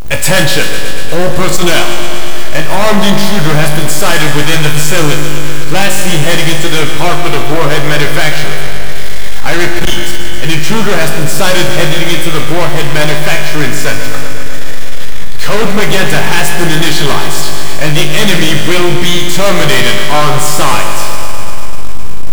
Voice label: announcement

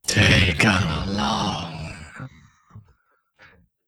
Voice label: gibberish; scmod; humanerror; acontroller

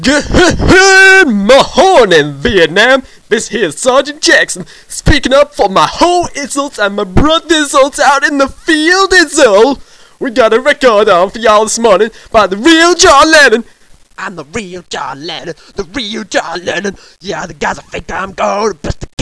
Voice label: Radioshow

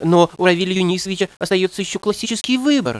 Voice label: dialog

